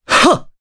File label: Attack, jp; Pavel; kingsraid